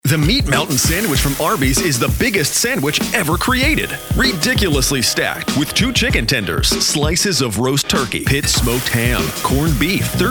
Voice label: Commercial, Voice Over